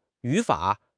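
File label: "yu3 fa3", Mandarin Chinese; HSK4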